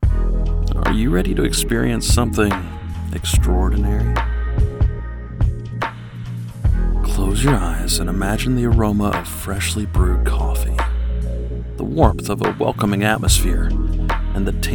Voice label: Enticing; Java Haven

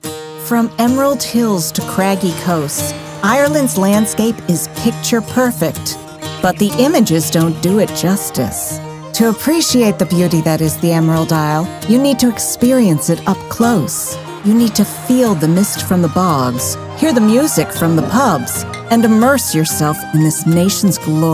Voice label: Narration

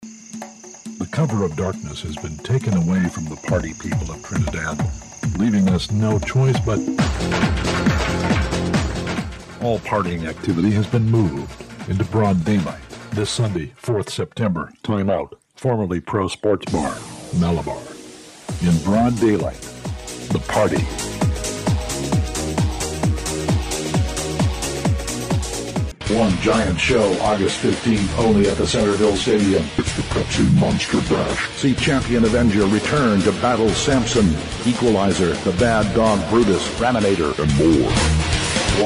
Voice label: techno clubscene trucker

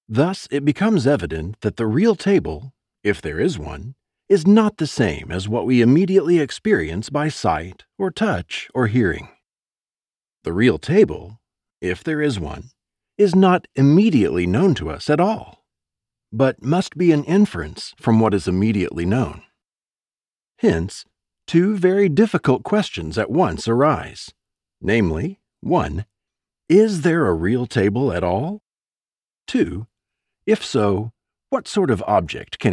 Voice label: Audiobook Nonfiction Sample Academic Philosophy

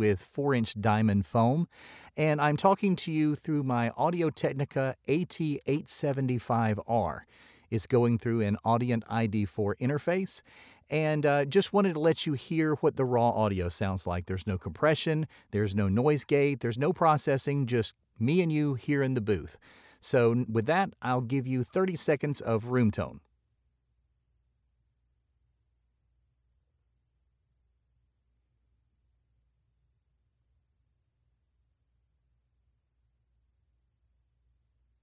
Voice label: Raw Studio; AT875r

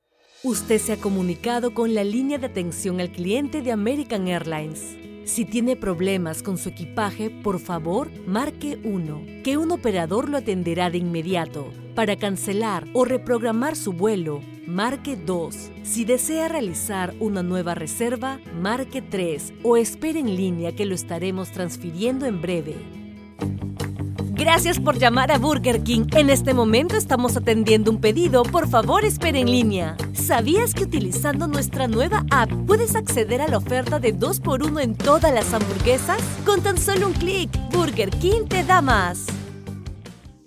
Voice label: IVR

